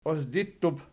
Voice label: "osdittub", Archi

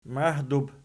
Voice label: "mahdub", Archi

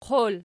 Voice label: "qol", Archi

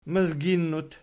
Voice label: "mizginnut", Archi

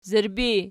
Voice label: "zirbi", Archi

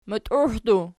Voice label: "mutiuhdu", Archi

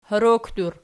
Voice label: "horookdur", Archi

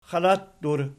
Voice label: "xalattur", Archi

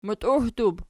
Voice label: "mutiuhdub", Archi

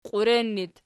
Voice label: "qiuren", Archi

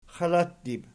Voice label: "xalattib", Archi

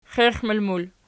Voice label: xiexmul pl, archi

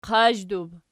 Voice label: "qazhdub", Archi

